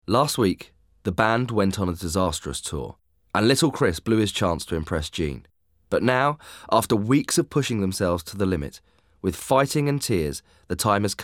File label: narration; Rock School